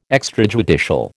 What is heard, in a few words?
"extrajudicial", American